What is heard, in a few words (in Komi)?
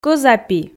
"кӧзапи" Komi